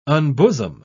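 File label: "unbosom", British English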